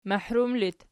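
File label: "mahrum" locative, Archi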